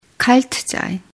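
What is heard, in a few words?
"kalt", German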